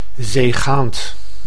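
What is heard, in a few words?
"zeegaand", Dutch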